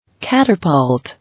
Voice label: "Catapult", English